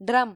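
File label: "drum", Malayalam; gcompris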